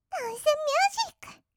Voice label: ダンスミュージック, kawaii voice; ASMR; 音楽ジャンル; 1200 kawaii voice!!

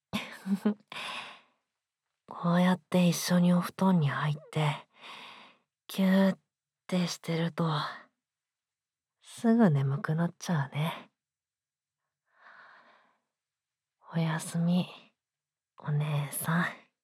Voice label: おやすみボイス, ASMR

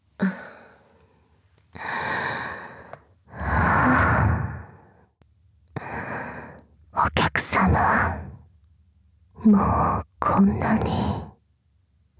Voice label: チン嗅ぎと裏筋舐め上げ 語り掛け, ASMR

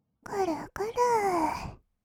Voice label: くるくる～, kawaii voice; ASMR; 色々なワンショット

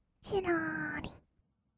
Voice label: "ちらり", kawaii voice; ASMR; 色々なワンショット

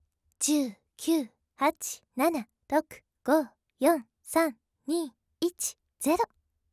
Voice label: カウントダウン早口

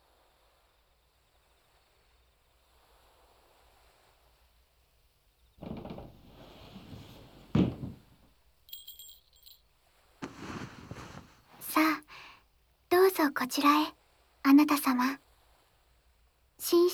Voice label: 添い寝; ウサギはさみしいと死んでしまうのです

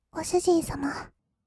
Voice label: "ご主人様", kawaii voice; ASMR; 二人称; 1200 kawaii voice!!

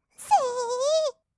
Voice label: "せいー", kawaii voice; 音ゲー

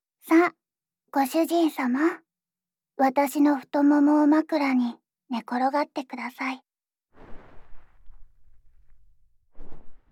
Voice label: 膝枕で頭を撫でられながら耳舐めと囁き

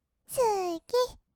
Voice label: すき, kawaii voice; ASMR; 色々なワンショット

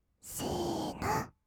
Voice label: "せーの", kawaii voice; ASMR; 1200 kawaii voice!!; 音ゲー

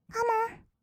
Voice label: "カモン", kawaii voice; ASMR; 1200 kawaii voice!!; 音ゲー

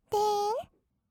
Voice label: "でーん", kawaii voice; ASMR; 色々なワンショット

